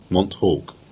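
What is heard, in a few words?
"Mount Hawke", Cornish; place-names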